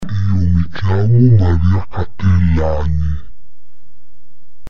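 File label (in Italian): La voce da orco (effetto pitch-shift), Frase modificata; I bambini, con l'aiuto dell'insegnante, registrano e rielaborano la propria voce al comput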